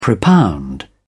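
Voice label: "propound", British English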